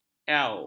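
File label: "iau", Malay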